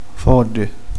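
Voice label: "matto", Italian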